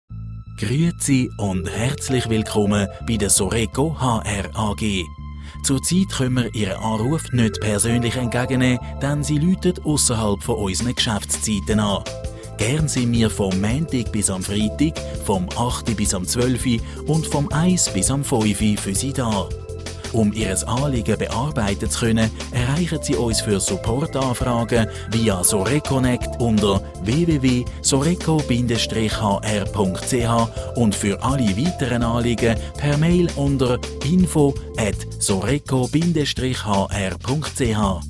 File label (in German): anrufbeantworter ansage schweizerdeutsch